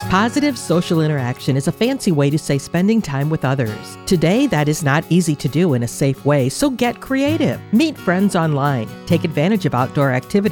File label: Female; Positive Social Interaction